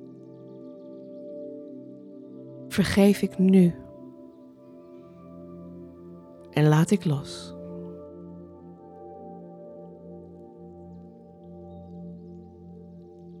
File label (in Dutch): Vergeving meditatie